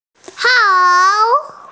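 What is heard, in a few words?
"hao3", Mandarin Chinese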